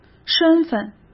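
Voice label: "身份", Mandarin Chinese; chinese flashcards; hsk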